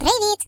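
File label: "Hello", British; hedgewars; Default uk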